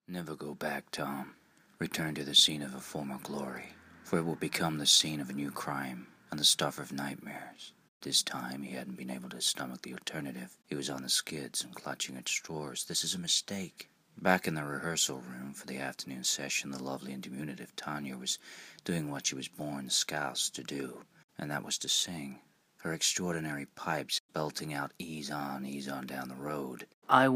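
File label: American Reading, male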